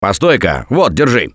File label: Alert Give Item Stop, russian; Gambler